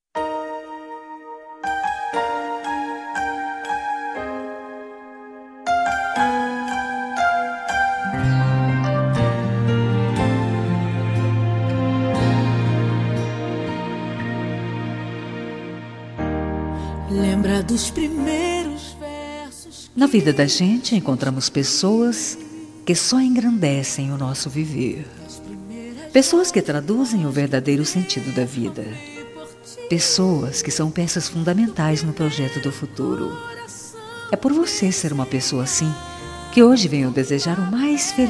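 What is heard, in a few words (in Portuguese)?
birthday romantic evangelica religiosa female, telemensagem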